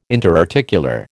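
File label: "interarticular", American English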